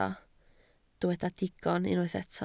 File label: "digon", Welsh